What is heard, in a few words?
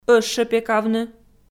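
"ӧщепекавны", Komi, female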